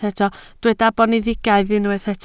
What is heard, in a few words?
"boneddigaidd", Welsh